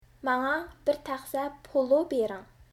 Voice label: "Polo", Uyghur